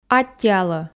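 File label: "аттьӧалӧ", Komi, female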